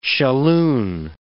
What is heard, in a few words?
"shalloon", words